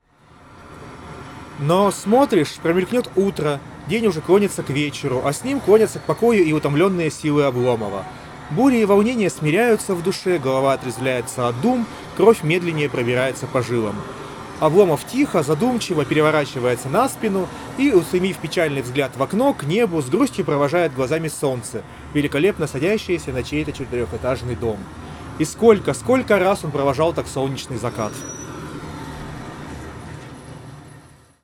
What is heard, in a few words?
Recorder noise; Запись на профессиональный рекордер (в шумной обстановке); Huawei FreeArc; Низкочастотная часть шума убрана полностью, на записи слышны лишь сравнительно высокочастотные шумы: гудки автомобилей, крики людей